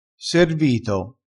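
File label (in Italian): "servito", vocabolario dialettale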